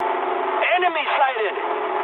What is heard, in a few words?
eng enemy detected mood high, speech eng